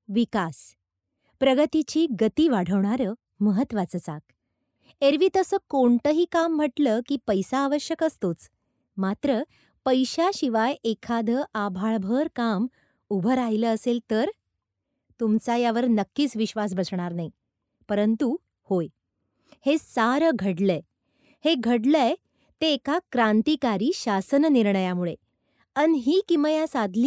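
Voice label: Marathi, female, documentary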